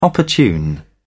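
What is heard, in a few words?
"opportune", British English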